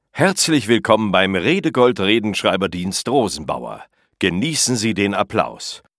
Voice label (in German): sprechart natuerlich prononciert